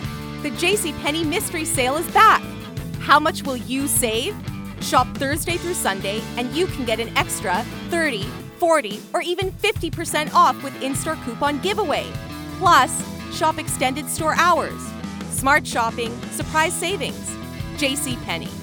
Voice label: female english canadian neutral north american accent commercial; jcpenney; devine voices